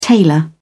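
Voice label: "tailor", British English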